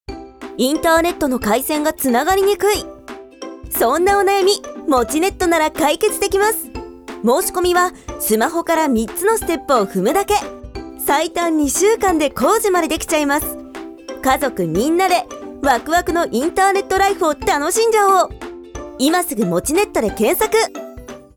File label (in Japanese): youtube CMナレーション; もちネット